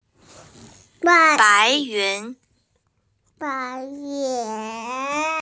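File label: "bai2yun2", Mandarin Chinese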